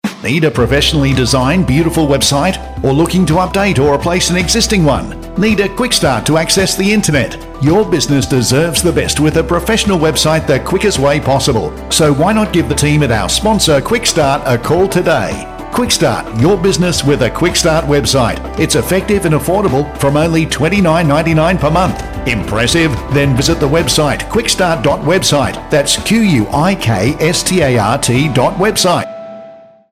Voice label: radio ad; Quikstart